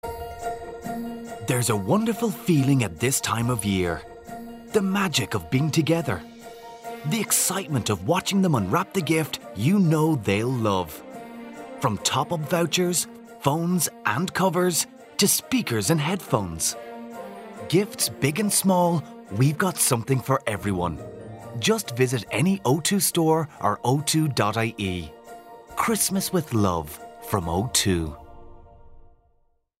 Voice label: Ireland Radio Anticipation; O2; Xmas